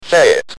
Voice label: Speak & Spell UK Say It